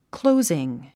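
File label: "closing", English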